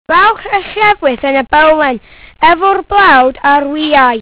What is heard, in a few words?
welsh child